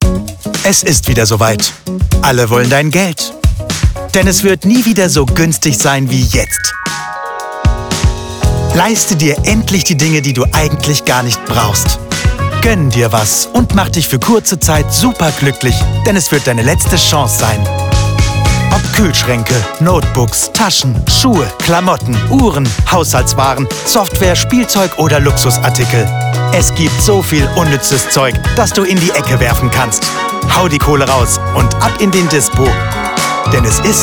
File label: german commercial; Deutsch; Deutschland; deutscher Muttersprachler (Standardhochdeutsch)